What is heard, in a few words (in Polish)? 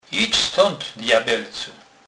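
"djabelec", Kurpie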